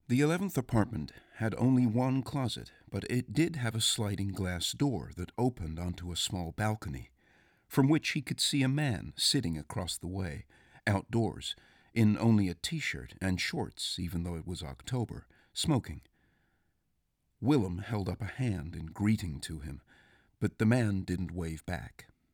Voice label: US accent